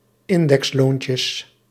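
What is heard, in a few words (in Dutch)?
"indexloontjes", Dutch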